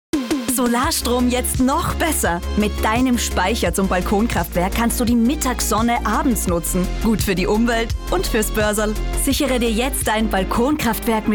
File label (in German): Frau OFF